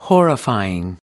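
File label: "horrifying", American English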